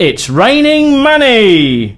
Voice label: Drop Cash, voice commands, male; svencoop

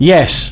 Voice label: Yes, male, voice commands; svencoop

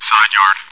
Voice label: chitchat, bot, voice commands; svencoop

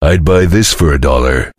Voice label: chitchat; svencoop; voice commands; Duke

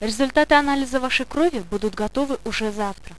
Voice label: monologue; svencoop; paranoia; medic

